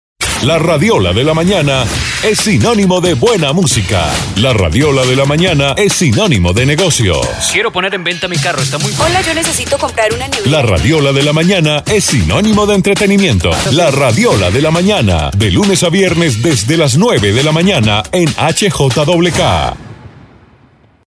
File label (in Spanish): promo radiola mañana